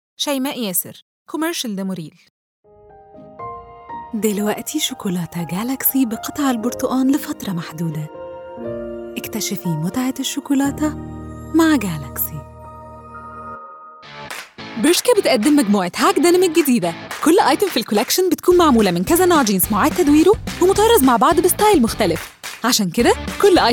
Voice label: Egyptian Commercial